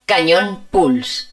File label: pulse cannon, Spanish